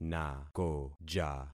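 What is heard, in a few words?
"nakoja", concatenated syllables; Affixing experiment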